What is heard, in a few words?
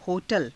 "ho_tala", Hindi